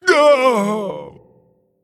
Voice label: Male Mogu Death